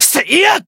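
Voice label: limit break, Japanese; nero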